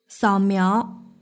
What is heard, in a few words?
"saomiao", Mandarin; jiahaoyou